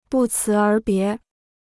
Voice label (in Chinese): "不辞而别", Chinese